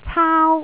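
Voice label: "caau1", Cantonese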